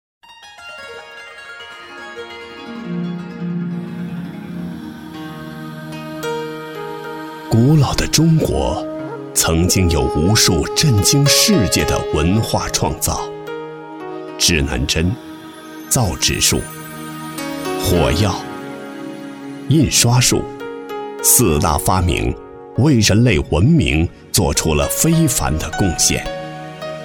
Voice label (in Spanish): Locutor varón chino